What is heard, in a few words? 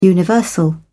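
"universal", English, British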